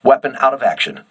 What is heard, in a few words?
voice message weapon destroyed, crew ships english